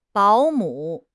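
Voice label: "保姆", Mandarin Chinese; HSK; HSK6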